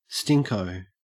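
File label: "stinko", Australian English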